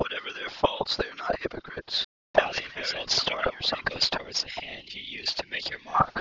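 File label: mixture 2 speakers male whisper; Simulated whisper; Timit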